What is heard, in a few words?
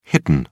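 "hidden", English, British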